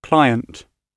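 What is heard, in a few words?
"client", British English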